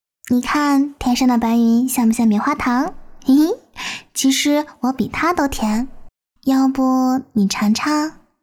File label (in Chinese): 萝莉样音